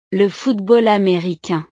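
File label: "le football americain", French; deportes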